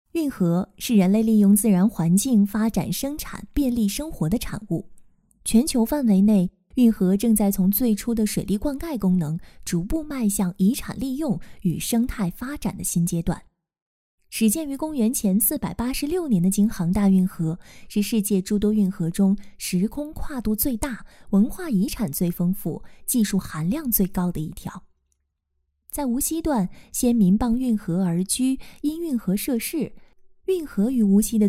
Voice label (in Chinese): 宣传片配音